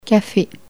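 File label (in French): "café", French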